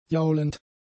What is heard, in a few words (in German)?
"jaulend", german; adjectives